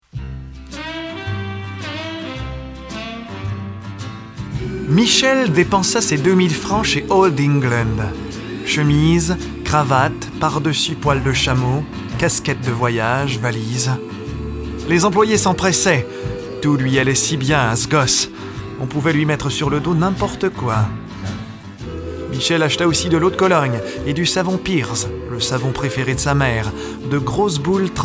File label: narration